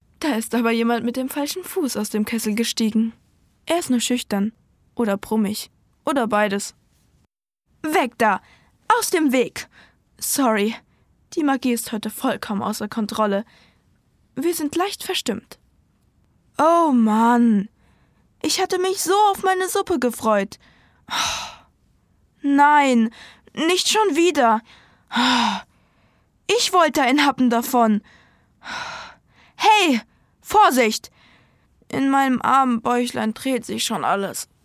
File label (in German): Hörspiel, maedchen